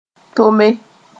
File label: "tome", Mooré